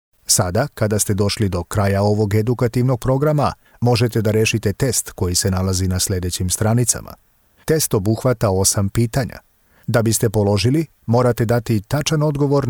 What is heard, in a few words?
e-learning project serbian voiceover male with studio